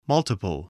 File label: "multiple", voice